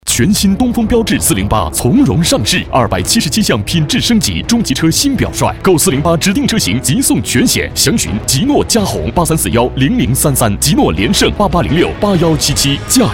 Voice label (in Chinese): 男 广告 电台汽车广告, 国语男声配音